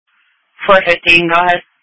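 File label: Police Patrol (Dispatch)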